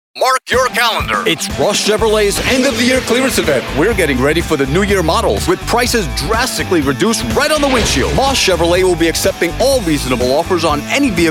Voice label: Automotive Voice Over; Ross Chevrolet